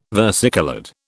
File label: "versicoloured", British